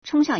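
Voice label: "chōngxiàng", Chinese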